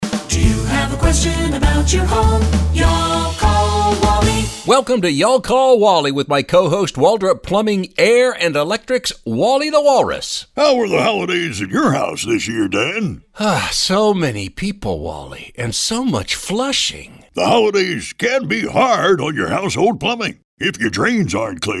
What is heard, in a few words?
radio ads; Post Holiday Plumbing